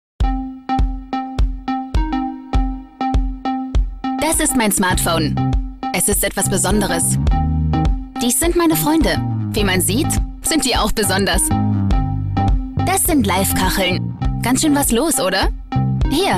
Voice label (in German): TV Spot; Nokia Lumia 610